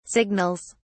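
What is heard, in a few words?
"signals", English, British English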